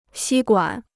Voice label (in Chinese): "吸管", Chinese